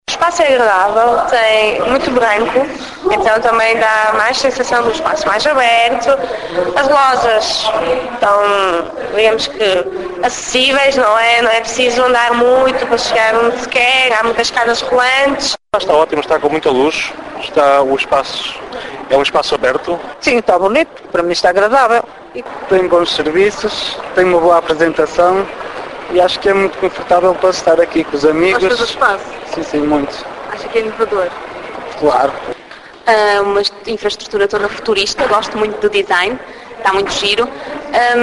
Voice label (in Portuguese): vox pop; gran plaza